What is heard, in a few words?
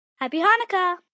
Happy Chanukah, Occasions, 1 boy, 7 yrs.; Holiday; Voice Box